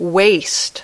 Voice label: "waste", English